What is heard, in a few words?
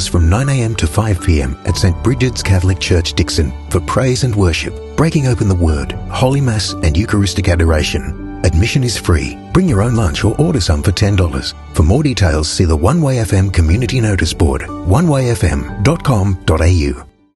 Retreat Audio annoncement